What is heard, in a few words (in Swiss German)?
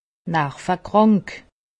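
"nervekrank", Haut Rhin; medecine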